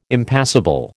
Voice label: "Impassable", American